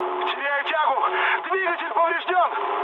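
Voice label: Russian damaged engine mood med, speech; War Thunder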